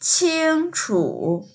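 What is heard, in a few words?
"qing1chu3", Mandarin Chinese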